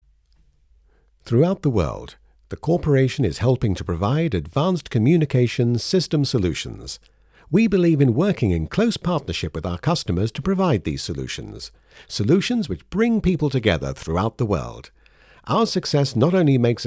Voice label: Corporate